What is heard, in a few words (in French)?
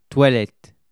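"toilettes" French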